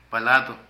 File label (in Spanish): Plato, Zapoteco de Petapa; Vocabulary